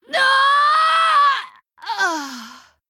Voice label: Death, zh